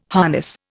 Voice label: "harness", Indian